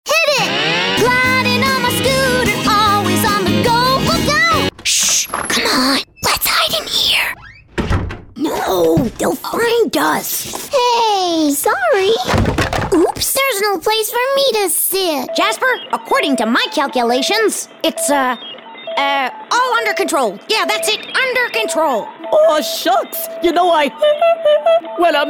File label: Women Animation